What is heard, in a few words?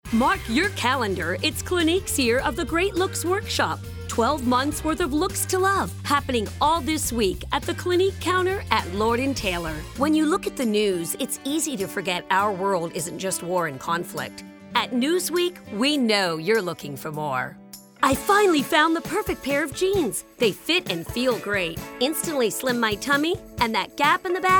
VO Commercial